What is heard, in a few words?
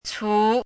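"cú", Mandarin Chinese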